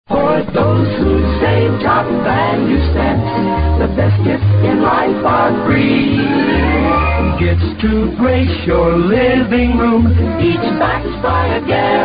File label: Vintage Commercials; Top Value Stamps